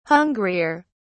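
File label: "hungrier", English, British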